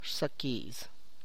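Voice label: Tlacochahuaya Zapotec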